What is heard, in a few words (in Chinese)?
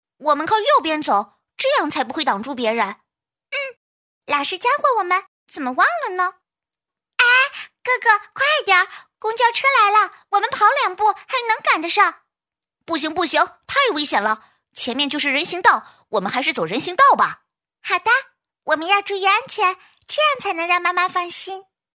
女 童声 哥哥妹妹对话